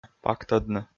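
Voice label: "пактӧдны", Komi, male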